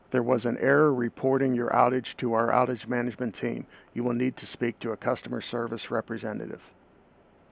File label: Voice Outage Error Reporting Message